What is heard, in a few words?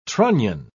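"trunnion", British English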